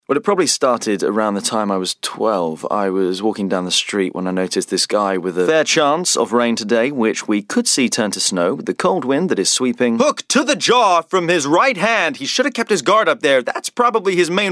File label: Accents